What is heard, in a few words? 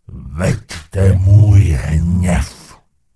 Gnome Male Charge; wowpreklad; czwow; GnomeVocalMale